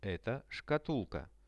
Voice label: "eto shkatulka", Russian; veshi